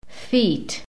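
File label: "feet", American English; PHONETIC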